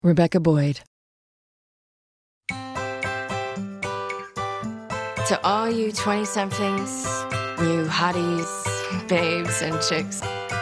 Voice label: commercial; Innovative LA